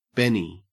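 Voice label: "benny", Australian English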